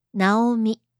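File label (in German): "Naomi", japanische Mädchennamen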